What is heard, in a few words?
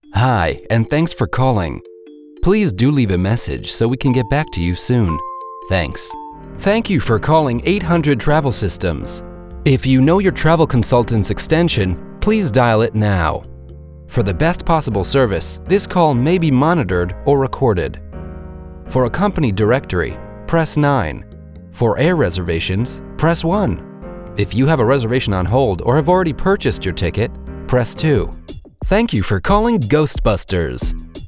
Telephony